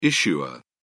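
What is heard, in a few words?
"issuer", British English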